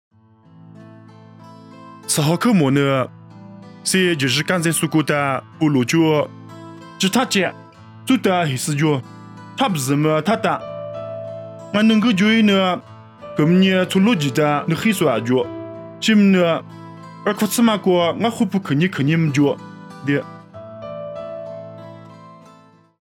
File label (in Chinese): 凉山彝语; 地中海哥林多; nuosu